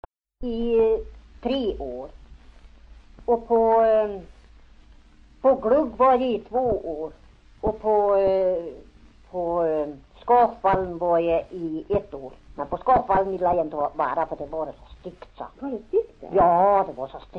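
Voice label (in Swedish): Järvsö Hälsingland, dialekter; Folkminnen; Svenska sagor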